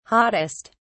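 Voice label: "hottest", British English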